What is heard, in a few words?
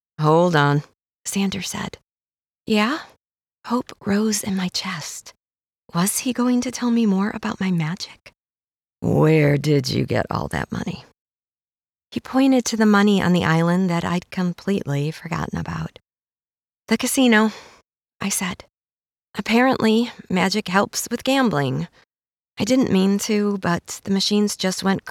Cozy Magical Mystery